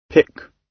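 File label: "pick", British English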